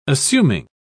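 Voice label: "assuming", British English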